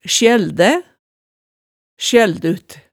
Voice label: "sjøldæ", hemsedalsmaalet

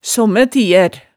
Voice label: "sommætiær", hemsedalsmaalet

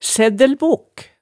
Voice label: "sæddælbok", hemsedalsmaalet

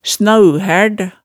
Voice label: "snauhærd", hemsedalsmaalet

